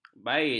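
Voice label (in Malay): "bait", Malay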